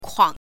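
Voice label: "kuang", Mandarin Chinese, neutral tone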